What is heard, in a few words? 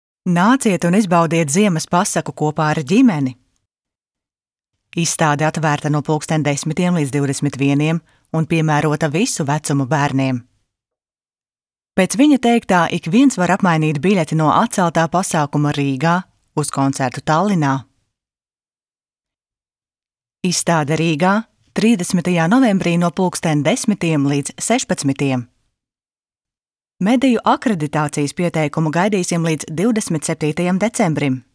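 Latvian, female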